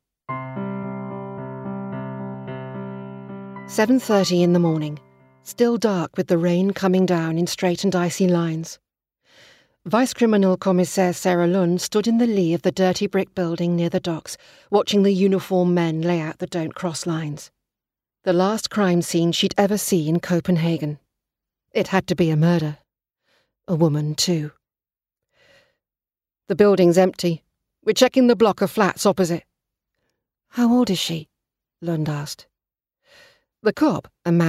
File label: Audiobook